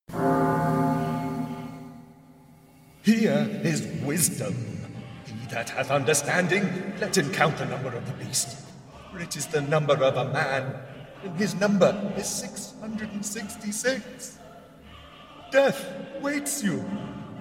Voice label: Horror, Terror, Religious Fear